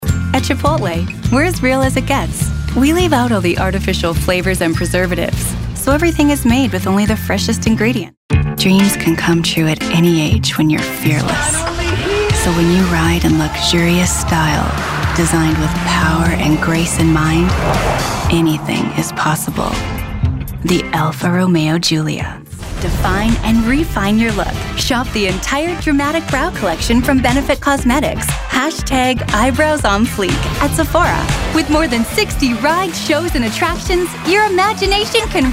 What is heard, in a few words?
canadian accent, english female voices canadian accent